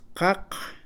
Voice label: "seis", Mam; Números; INALI

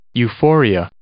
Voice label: "euphoria", English

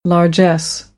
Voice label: "largesse", American

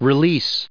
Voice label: "release", English

